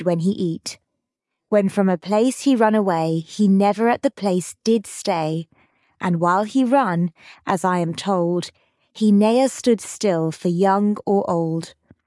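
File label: There was a Little Guinea Pig, female, British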